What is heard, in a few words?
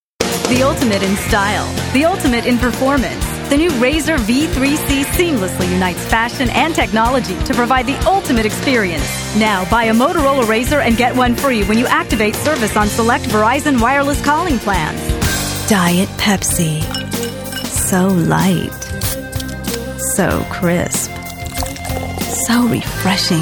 voiceover